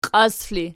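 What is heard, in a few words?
"qias" ergative, Archi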